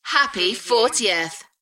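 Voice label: UK female, DJ vocals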